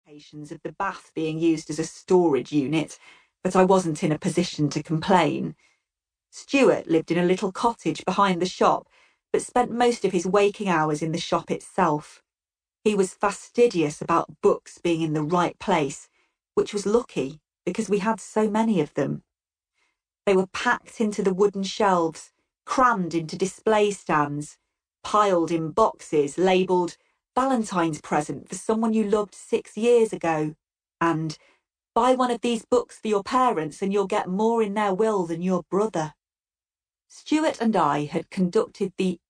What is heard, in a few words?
audiobook